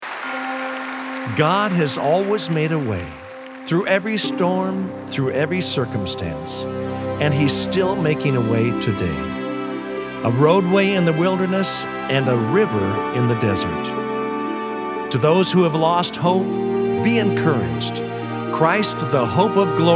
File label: Narration and Underscore